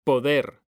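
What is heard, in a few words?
"poder", Spanish, male; mekanta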